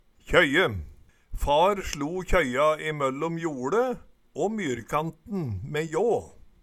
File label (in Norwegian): kjøye, Numedalsmål